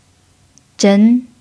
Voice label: "zhen2", Chinese